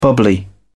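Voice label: "bubbly", English, British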